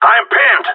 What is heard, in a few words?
need help, radio; GSG9